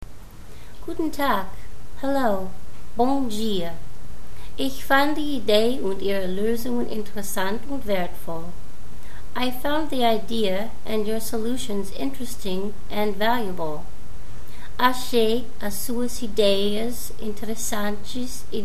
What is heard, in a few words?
Trilingual response